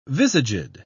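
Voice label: "visaged", English, British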